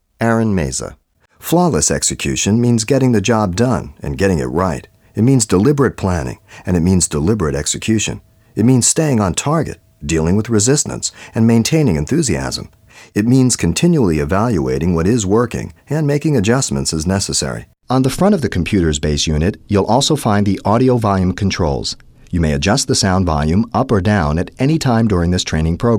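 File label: narration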